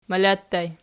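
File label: "mala" ergative plural, Archi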